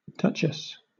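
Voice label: "duchess", British English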